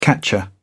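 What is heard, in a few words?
"catcher", British English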